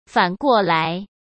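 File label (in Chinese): "反过来", Mandarin Chinese; hsk; chinese-flashcards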